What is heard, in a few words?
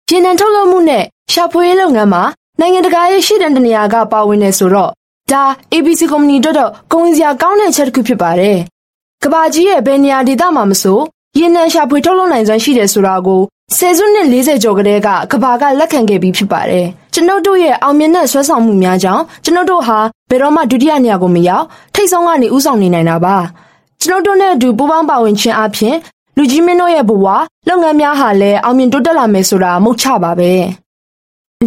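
Burmese Female Marketing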